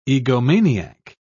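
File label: "egomaniac", British English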